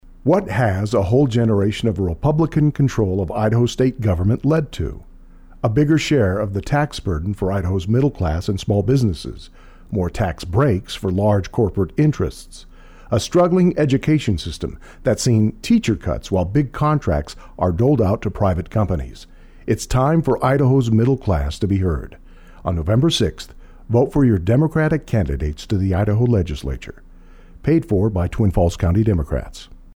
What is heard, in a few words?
Radio Ad; Twin Falls